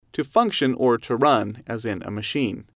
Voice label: "to function run machine", English

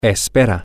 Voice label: you hope, spanish, M

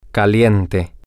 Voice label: hot things, spanish, M

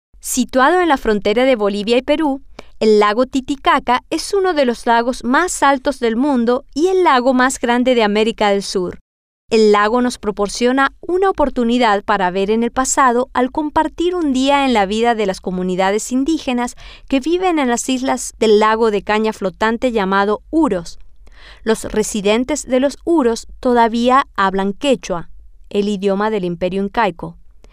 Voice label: Spanish; lago Titicaca

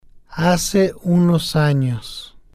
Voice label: "hace Unos Anos", spanish

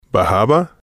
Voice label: "bajaba", spanish

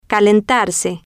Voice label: "calentarse", Spanish

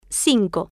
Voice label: "cinco", Spanish

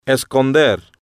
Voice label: "esconder", Spanish

